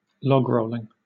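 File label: "logrolling", British English; pronunciations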